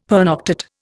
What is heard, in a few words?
"pernoctate", Indian; Dictionary